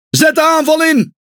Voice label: NL Speech Pilots Fighter Engage; World Of Warships

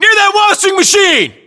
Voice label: landmark near washing machine, battlechatter us

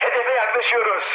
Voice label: near waypoint, mood high, speech tur; War Thunder

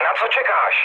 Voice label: attack position, speech cze, mood low

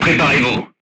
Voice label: voice message load, crew tanks french

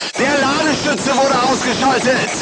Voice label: voice message charger contused, crew tanks german

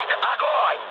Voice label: attack position, mood med, speech ru; War Thunder

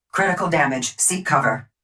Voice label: critical damage seek cover, Titan EN Tone Voice; Titanfall 2

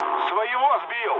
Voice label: player killed ally, mood low, speech ru; War Thunder

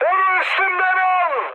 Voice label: aircraft damaged mood high, speech; War Thunder

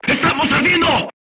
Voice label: voice message fire in tank, crew tanks spanish

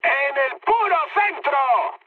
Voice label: bomb success, mood high, speech; War Thunder

